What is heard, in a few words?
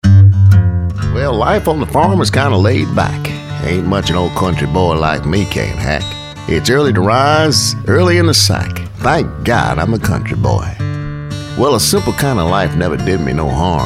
Country Boy